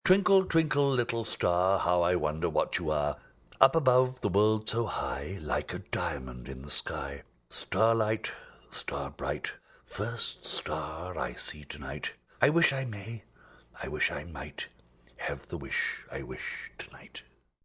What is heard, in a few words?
Rhyme, Nursery rhyme, speaking, male; Rhyme Twinkle; Talking Sound Effects; Sound Effects:Voice; VoiceBox